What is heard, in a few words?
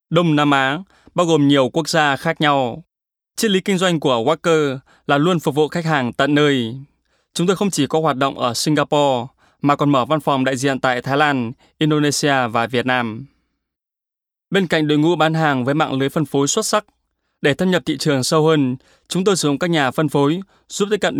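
Vietnamese informative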